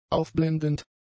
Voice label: "aufblendend", German; adjectives

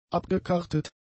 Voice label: "abgekartet", German; adjectives

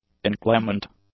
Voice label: "inclement", English; adjectives

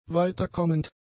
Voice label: "weiterkommend", German; adjectives

